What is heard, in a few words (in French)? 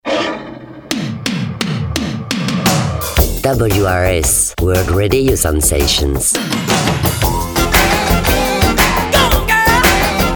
Radio Liner; Sensations